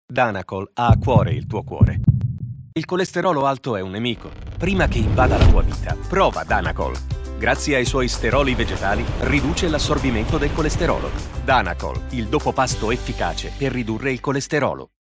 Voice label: male italian comercial; ideasonora